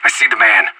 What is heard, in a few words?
radio enemy spotted; Counter-Strike Global Offensive